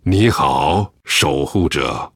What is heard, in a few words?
GREETINGS, zh